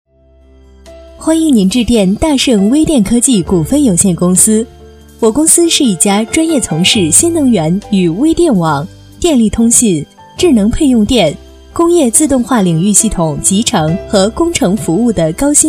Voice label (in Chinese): 女, 国语女声配音 彩铃广告; 大盛微电科技股份有限公司